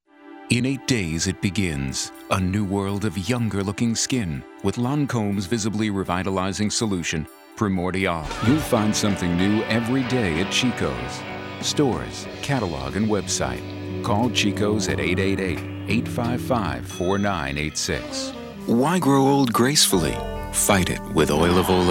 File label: commercial; Sizzle Reel